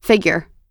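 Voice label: "figure", US English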